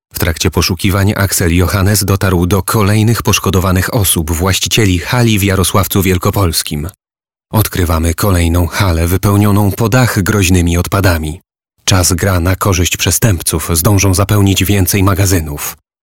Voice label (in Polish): M NARRACJA